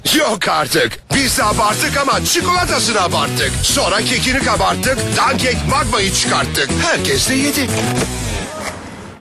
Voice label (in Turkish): enerjik tempolu